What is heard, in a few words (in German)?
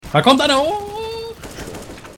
da kommt einer hooooch, jingle